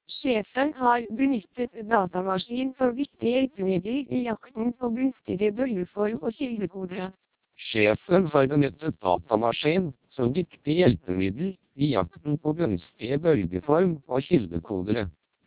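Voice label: Norwegian, speech, Vocoder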